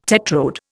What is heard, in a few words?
"tetrode", Indian